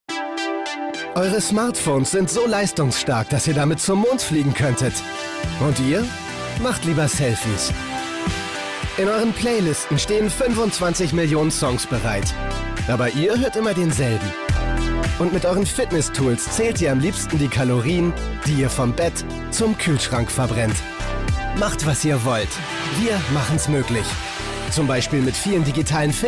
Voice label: tv spot; mobilcom